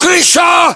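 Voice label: location rooftop, battlechatter, Russian; localized english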